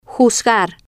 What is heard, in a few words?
"juzgar", Spanish